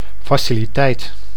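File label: "fasciliteit", Dutch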